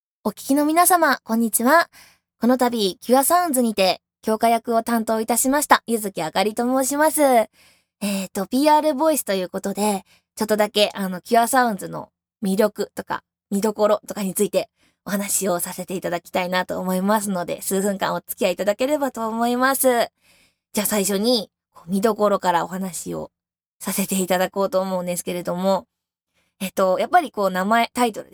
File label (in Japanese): PRボイス; 【立体音響】Cure Sounds-響花